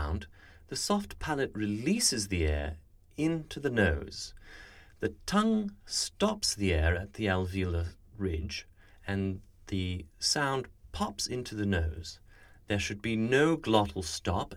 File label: Nasal Plosion, rp; speech; dialects